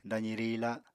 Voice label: "dazi riila", Apatani